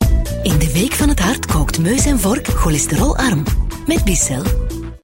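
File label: Flemish, Female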